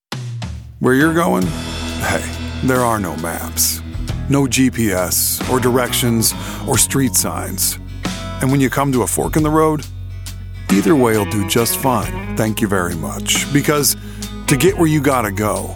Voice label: Commercial Cool Chill

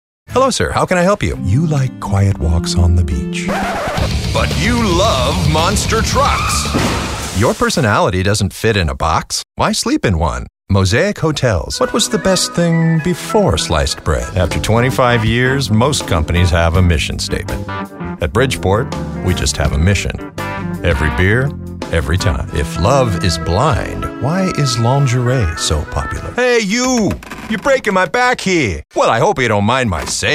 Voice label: Humorous Versitile Commercials

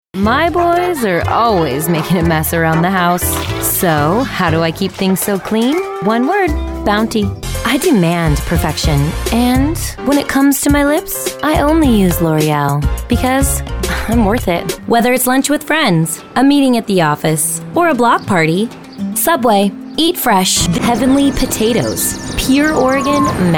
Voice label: Commercial

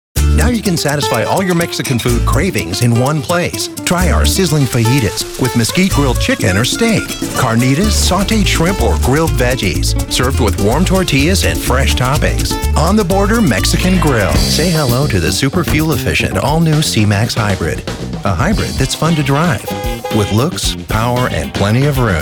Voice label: Fun Guy Next Door Commercials

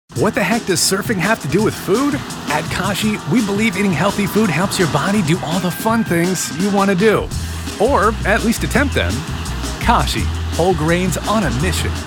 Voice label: COMMERCIAL

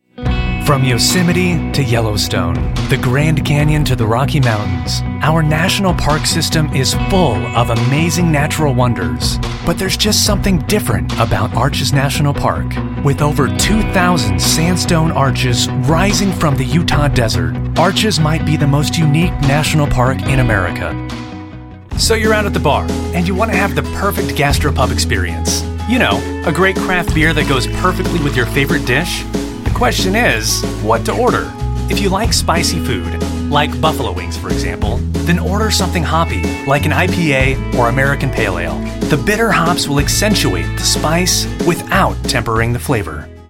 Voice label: Explainer Narration Confident Friendly Expert

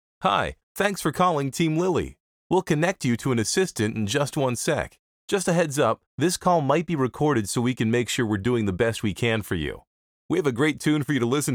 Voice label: Phone IVR

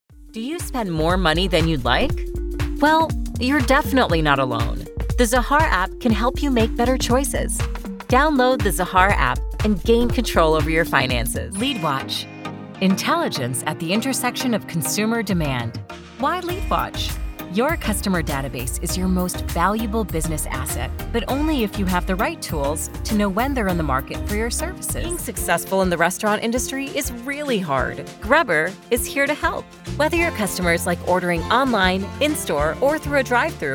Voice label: EXPLAINER Communicative Instructional

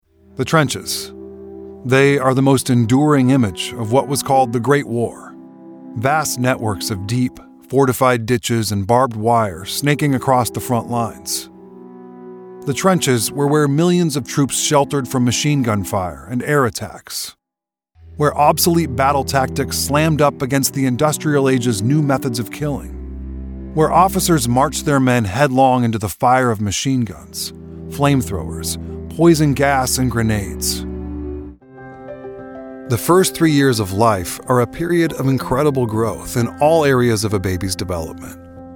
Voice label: Documentary Intriguing Engaging

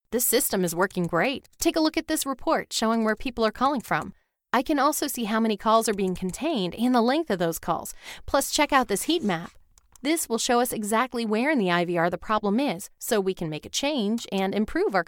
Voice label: eLearning Character Conversational Grounded

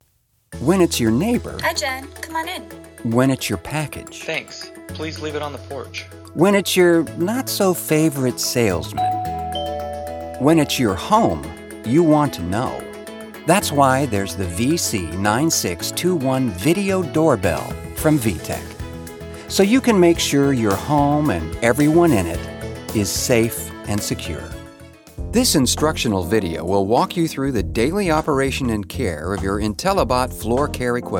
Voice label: eLearning

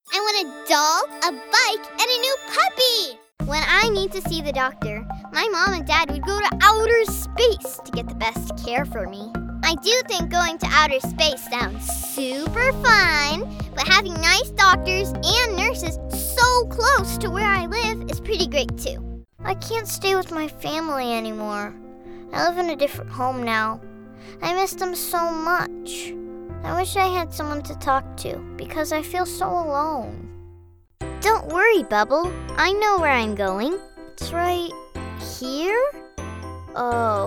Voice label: Sweet Smart

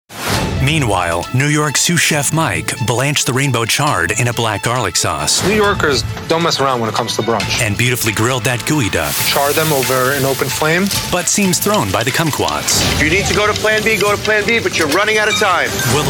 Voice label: In Show TV Narration Engaging Fun Gravitas

